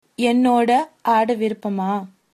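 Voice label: dance, female, tamil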